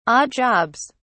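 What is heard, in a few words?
"odd jobs", English, British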